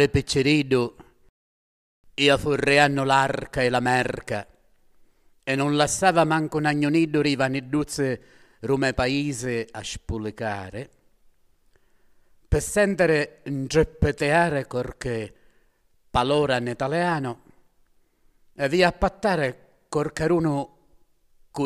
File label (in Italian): dialetto e musica